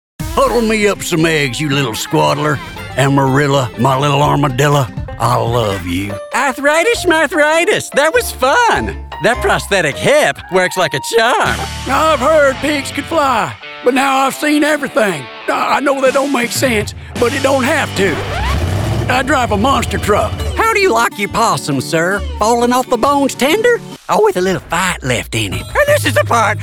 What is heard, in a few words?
Animation